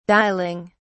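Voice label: "dialing", British English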